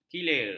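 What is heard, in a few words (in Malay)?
"kilir", Malay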